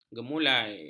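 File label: "gemulai", Malay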